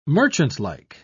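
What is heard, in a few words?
"merchantlike", British English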